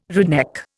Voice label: "redneck", Indian